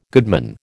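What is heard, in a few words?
"goodman", American English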